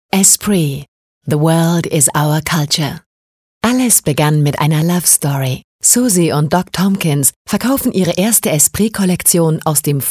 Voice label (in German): Kommentar Sanft